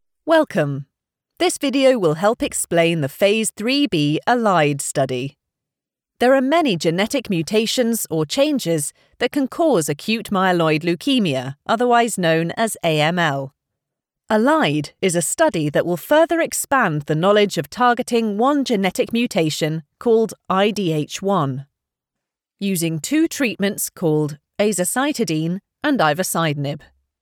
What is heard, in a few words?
english british explainer videos